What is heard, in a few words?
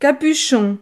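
"capuchon", French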